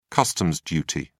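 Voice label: "customs duty", British English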